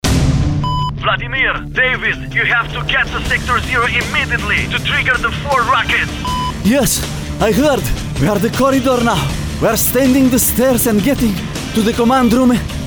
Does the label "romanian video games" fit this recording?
Yes